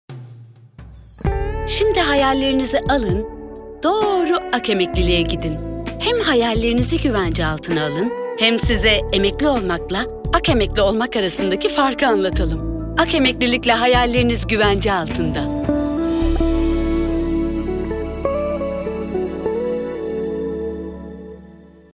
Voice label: sicak samimi, vokal tonu duygusal motive

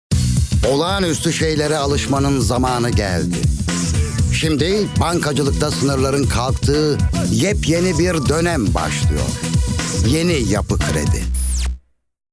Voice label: otoriter, seslendirme

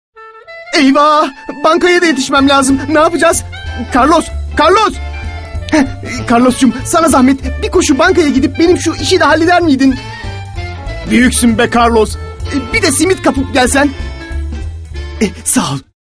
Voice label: neseli esprili, animasyon

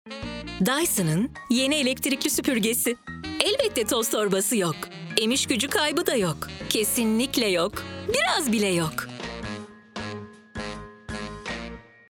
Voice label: kampanya tempolu, seslendirme

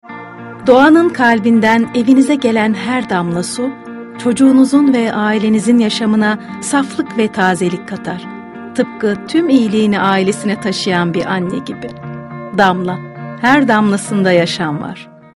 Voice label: Sicak Sakin

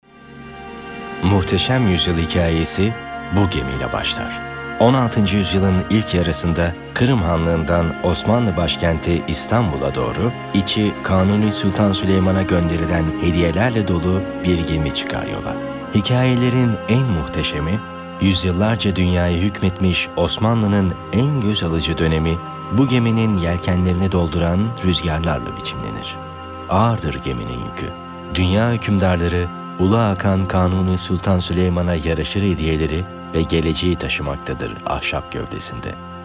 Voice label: tanitim filmi, vokal tonu

